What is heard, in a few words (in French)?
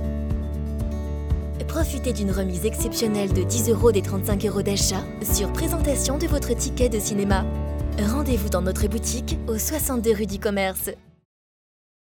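NATURE SPOT CINEMA; FLEURANCE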